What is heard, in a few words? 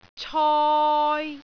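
"coi3", Cantonese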